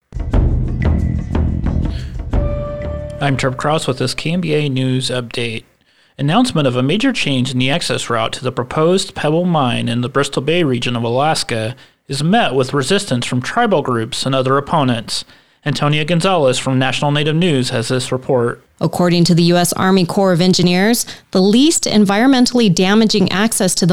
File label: newscast; pebble road